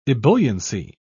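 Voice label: "ebulliency", British English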